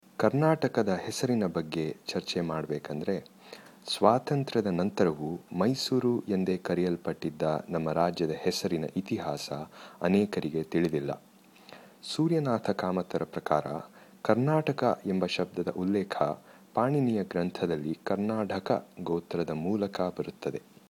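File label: Kannada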